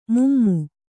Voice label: "ಮೂಮೆ", Kannada